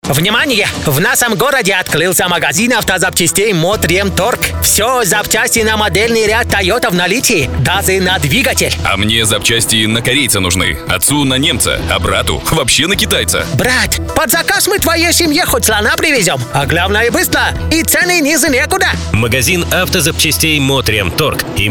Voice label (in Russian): yaponec